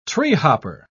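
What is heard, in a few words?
"treehopper", British English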